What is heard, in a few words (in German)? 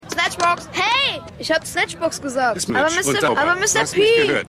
Junge; Damien; The Wire